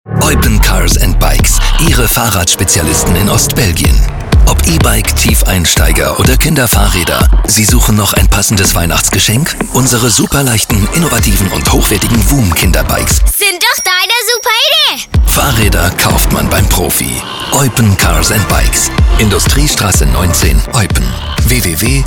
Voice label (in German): Werbung Kraftvoll Funk, DE